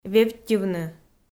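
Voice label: "вевттьывны", Komi, female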